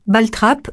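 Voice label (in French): "ball-trap" French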